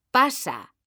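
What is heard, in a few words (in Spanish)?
"PASA" Spanish, female; mekanta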